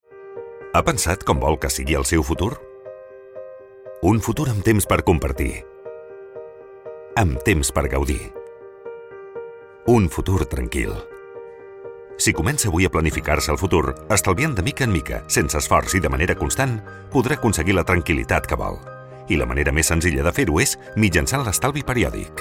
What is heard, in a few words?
male catalan bank comercial; ideasonora